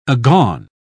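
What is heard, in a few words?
"agone", British English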